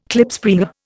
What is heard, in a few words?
"Klipspringer", Indian